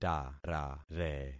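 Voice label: "tarare", concatenated syllables; Affixing experiment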